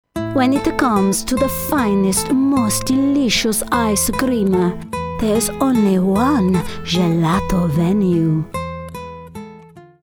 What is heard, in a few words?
ITALIAN